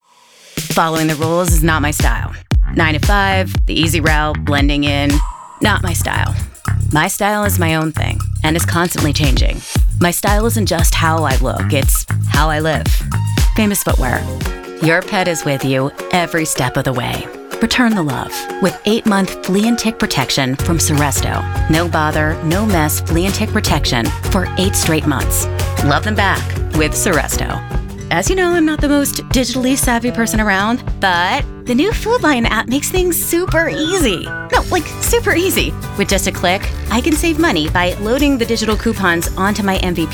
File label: commercial